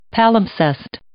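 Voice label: "palimpsest", English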